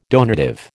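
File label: "donative", American English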